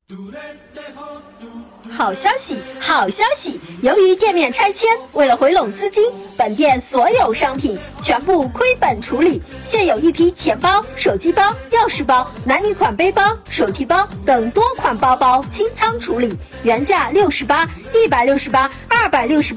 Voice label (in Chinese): 广告 配音